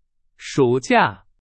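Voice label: Chinese, vocabulary; hsk4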